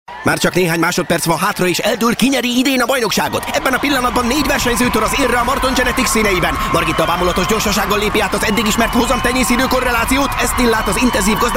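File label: Hungarian, male, commercial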